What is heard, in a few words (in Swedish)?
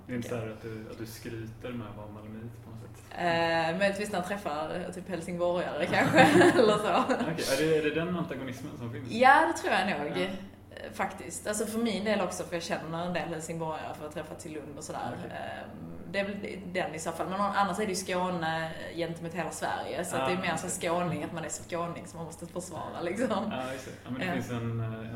Om Malmo och Skane, dialekter